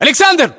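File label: "aleksandr", battlechatter, Russian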